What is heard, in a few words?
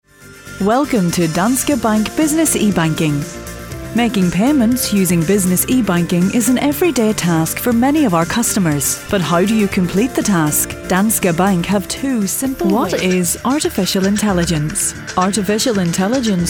Irish Female, elearning voices